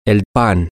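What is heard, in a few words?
the bread, Spanish, male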